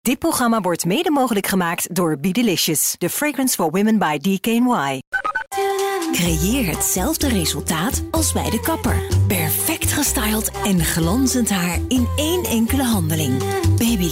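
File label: Dutch female voice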